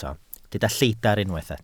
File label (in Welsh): "lleidr" Welsh